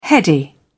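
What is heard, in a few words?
"heady", British English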